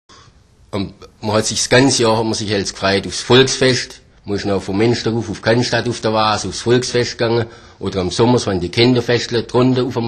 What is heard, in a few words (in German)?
Schwaebisch; Nordallemannisch; Oberdeutsch